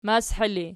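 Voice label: "mashi" ergative, Archi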